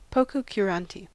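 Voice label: "pococurante", US English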